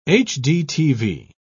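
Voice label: "hdtv", British English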